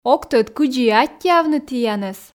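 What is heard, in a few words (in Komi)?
ог тӧд кыдзи и аттьӧавны тіянӧс, Komi, female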